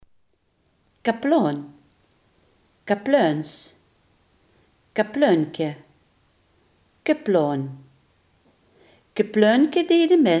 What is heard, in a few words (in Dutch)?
"kapelaan", Limburgs